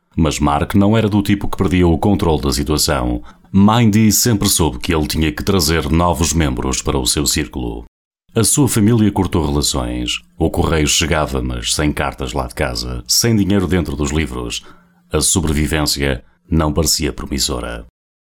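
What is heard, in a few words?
Portuguese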